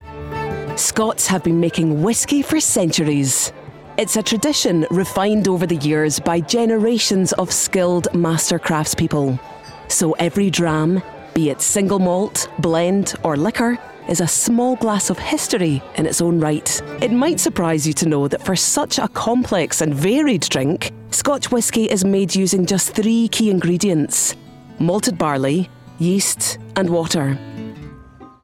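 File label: British Scottish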